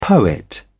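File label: "poet", British English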